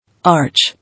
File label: "Arch", English